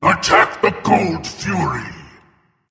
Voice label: vox attack; smite; thanatos

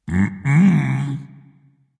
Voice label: disagree dragon; fafnir

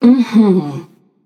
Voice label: agree passion; chaac

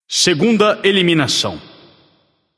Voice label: alert notify kill, portuguese; caster

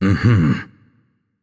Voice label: "yay", voicepack; smite; merlin

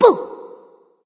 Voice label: spooky; cupid

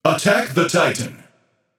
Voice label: Attack M; smite; camazotz

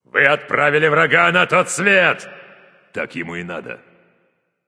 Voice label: slain you enemy, russian; caster

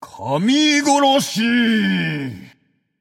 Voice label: alert notify kill all, japanese; caster

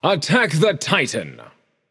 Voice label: VOX Attack M; smite; achilles

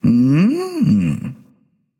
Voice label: thinking; smite; gilgamesh

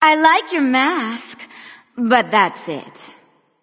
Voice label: taunt directed nemesis; smite; isis

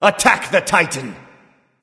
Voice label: vox attack m; smite; anubis

